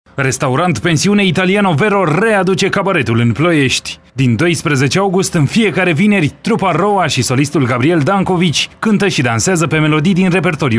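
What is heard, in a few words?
romanian male commercial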